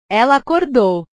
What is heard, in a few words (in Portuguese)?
"ela acordou", portugues